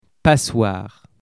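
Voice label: "passoire", French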